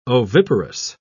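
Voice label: "oviparous", English, British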